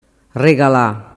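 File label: "regala", Sicilian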